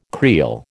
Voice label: "creel", American

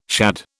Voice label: "shad", British

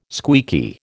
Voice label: "squeaky", American

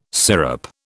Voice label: "sirup", British

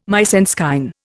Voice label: "mise en scène", Indian

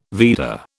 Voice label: "Veda", British

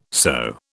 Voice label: "sew", British

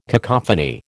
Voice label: "cacophony", American

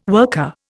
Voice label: "worker", Indian

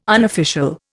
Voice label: "unofficial", Indian

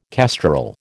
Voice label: "kestrel", American

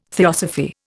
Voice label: "theosophy", Indian

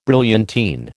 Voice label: "brilliantine", American

